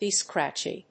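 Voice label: "be scratchy", English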